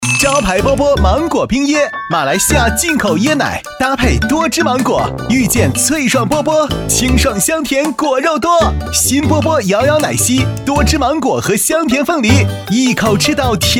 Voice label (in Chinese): 男-广告-奶茶-活泼激情